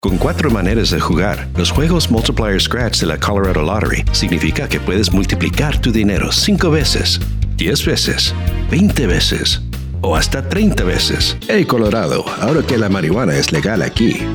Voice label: Latin American Spanish Commercial

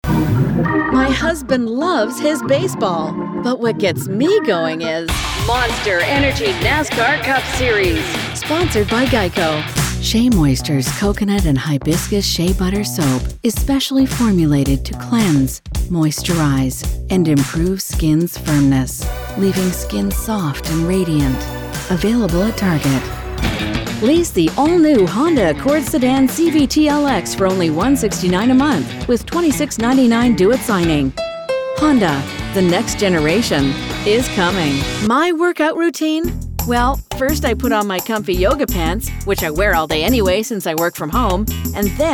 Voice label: COMMERCIALS

